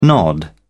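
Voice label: "nod off", British English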